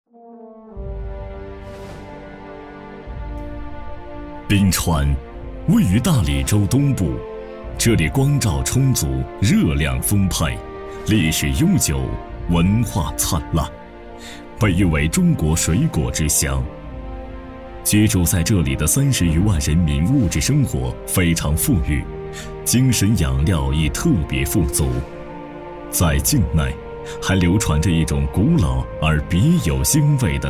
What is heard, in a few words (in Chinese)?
男 城市宣传 大气抒情; 宾川; 城市宣传《宾川》